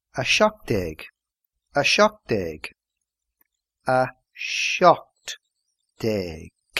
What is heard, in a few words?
seventeen irish